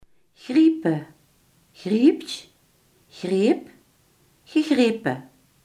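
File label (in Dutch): grijpen, Limburgse gesproken woordenboeken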